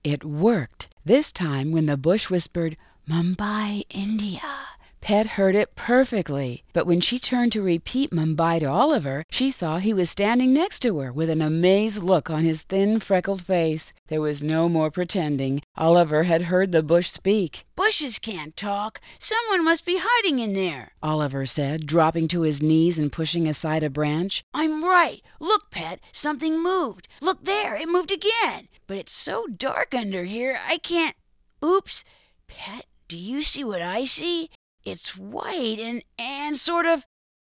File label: narr, voiceover